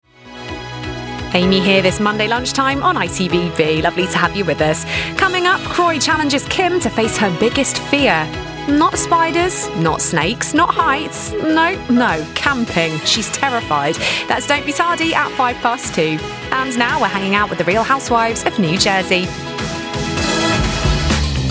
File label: continuity